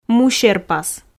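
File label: "мусерпас", Komi, female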